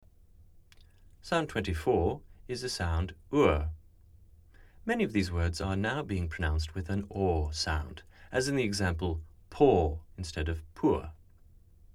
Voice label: Cure, rp; speech; dialects

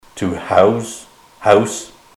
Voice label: to house house, ontario

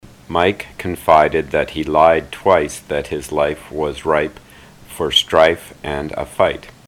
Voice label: mike lied twice, ontario

